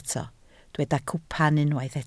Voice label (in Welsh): "cwpan", Welsh